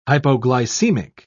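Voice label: "hypoglycemic", British English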